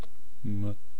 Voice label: "mw", East Cree syllabics